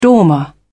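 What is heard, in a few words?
"dormer", British English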